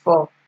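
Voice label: "for" Nigerian Pidgin, female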